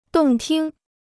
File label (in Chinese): "动听", Chinese